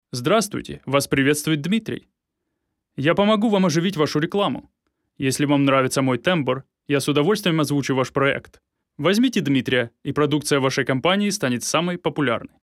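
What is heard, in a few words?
Russian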